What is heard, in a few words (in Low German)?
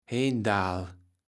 "hendahl", kaevels platt